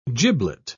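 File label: "giblet", British English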